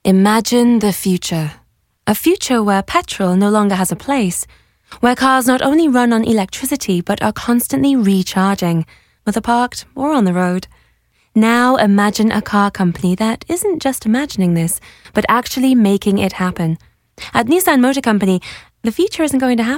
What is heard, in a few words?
Commercial Voice; Nissan; English US Accent